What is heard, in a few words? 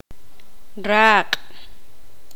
poqomam; lengua